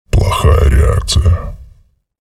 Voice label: chatter neutral; visor

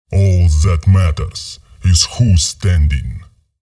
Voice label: chatter neutral, en; visor

